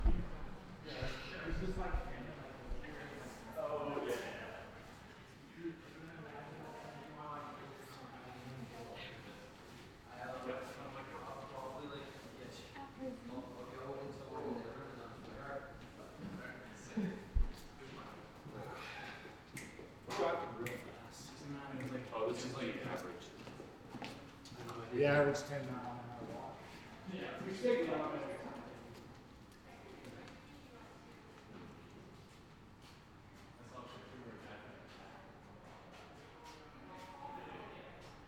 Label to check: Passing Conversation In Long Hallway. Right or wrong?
Right